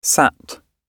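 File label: "sat", British English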